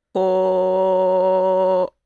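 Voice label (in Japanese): "こ", Japanese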